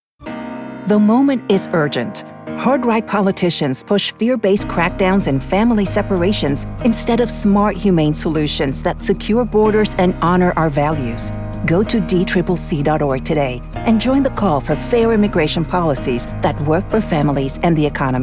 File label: political voice over advocacy english